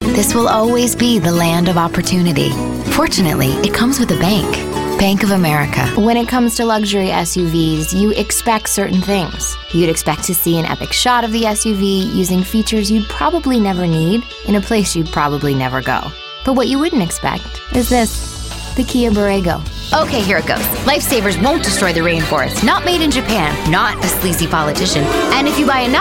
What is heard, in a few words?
commercials